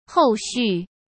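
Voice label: "后续", Mandarin Chinese; chinese flashcards; hsk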